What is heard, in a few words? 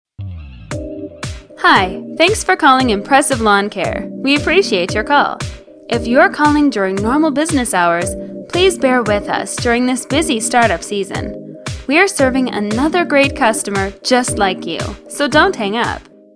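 Canada, female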